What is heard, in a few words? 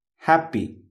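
"happy", English; properties of objects new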